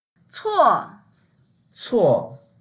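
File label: "cuo4", Mandarin Chinese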